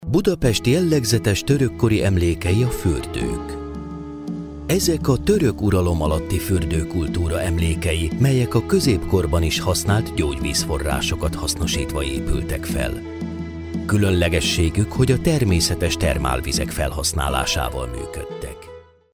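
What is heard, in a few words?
HU M narration, Hungarian, male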